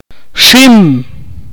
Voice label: "spider", Akateko